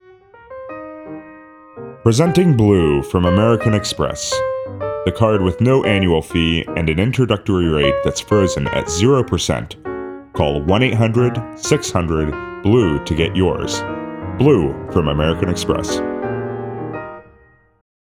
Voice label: voice over; American Express